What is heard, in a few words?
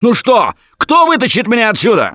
call for rescue, russian; Gambler